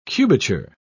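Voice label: "cubature", British English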